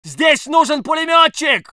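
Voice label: voice soviet infantry; Red Orchestra 41-45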